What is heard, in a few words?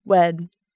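"wed", English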